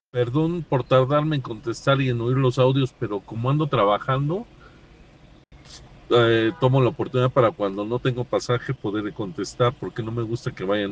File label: whatsapp ptt